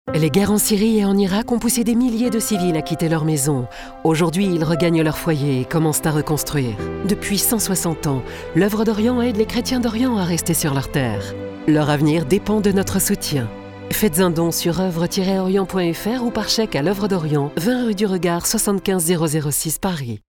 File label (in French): voix off feminine france; Oeuvre dorient